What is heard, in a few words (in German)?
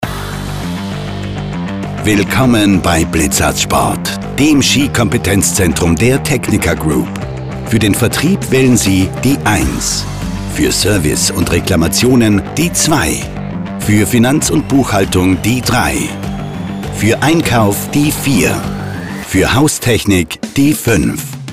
Telefonansagen